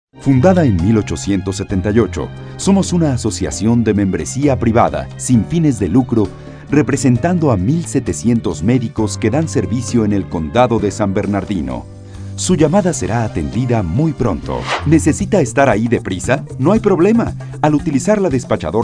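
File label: male On-Hold Messages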